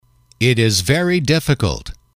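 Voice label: it is very difficult, English, male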